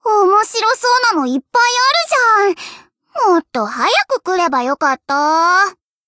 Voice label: chat; svencoop; voice commands; hl Nozomi